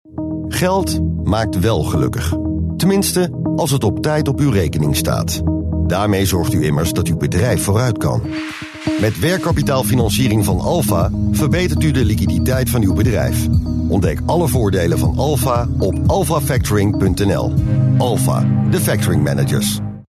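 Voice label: Dutch, male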